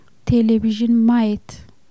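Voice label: "regarder la television", Amharic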